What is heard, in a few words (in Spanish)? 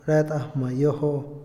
doce, Otomí, hombre; INALI